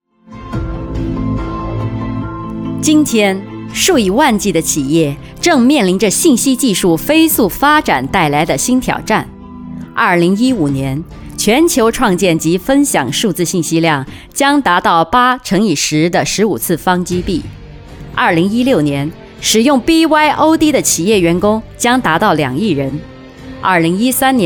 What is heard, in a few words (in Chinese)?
宣传片; 华为2013内部; 普通话